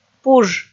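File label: "пуж", Komi, female; kpv audio dict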